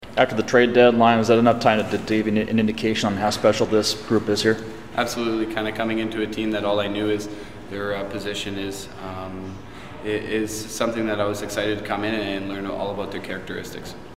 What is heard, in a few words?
Practice radio